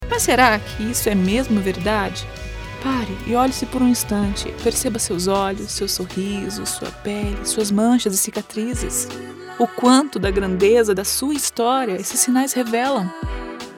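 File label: commercial ad middle age speaking level emotional natural female brazilian portuguese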